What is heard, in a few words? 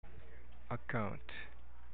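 "account", Japanese, male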